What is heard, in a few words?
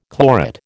"chlorate", American